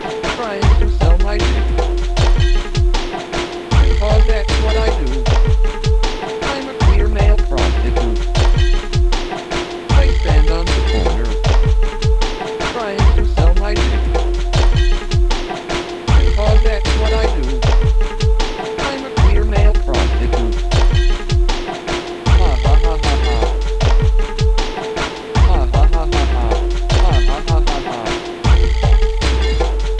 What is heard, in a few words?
Male